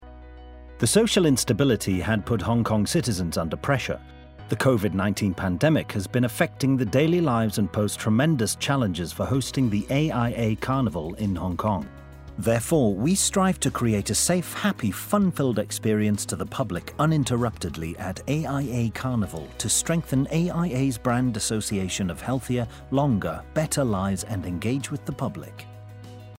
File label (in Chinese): 英語男聲; AIA